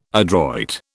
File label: "adroit", British